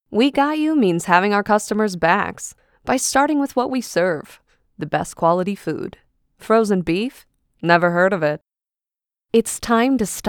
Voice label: DRY Commerical